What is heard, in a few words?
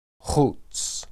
"huts", Hebrew